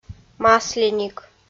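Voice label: "масленик", Komi, female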